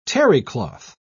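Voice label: "terrycloth", English, British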